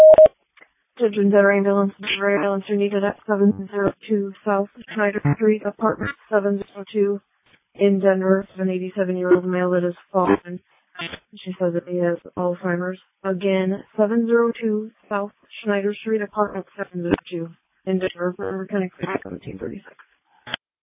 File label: Ambulance, dispatch; Denver